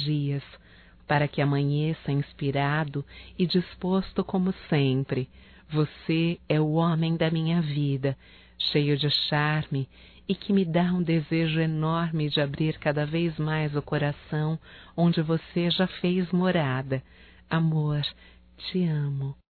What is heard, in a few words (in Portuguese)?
BOA NOITE ROMâNTICO, fem; Bom dia, tarde e noite; Telemensagens 2017